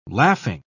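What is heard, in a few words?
"laughing", British English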